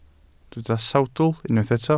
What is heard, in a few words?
"sawdl", Welsh